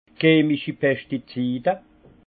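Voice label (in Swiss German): "chemischi", alsace Haut-Rhin; pesticide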